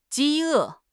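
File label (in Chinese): "饥饿", Chinese; HSK6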